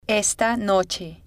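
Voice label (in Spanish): esta noche, Spanish, female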